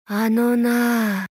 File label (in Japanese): VO jp